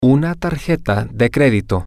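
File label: Spanish, male; a Credit Card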